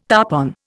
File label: "tarpon", Indian